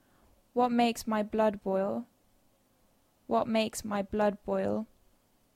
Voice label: Dictation; phonology